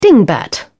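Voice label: "dingbat", British English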